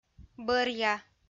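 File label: "бӧръя", Komi-Zyrian, female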